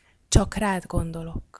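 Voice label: thinking of you, female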